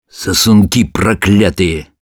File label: taunts ru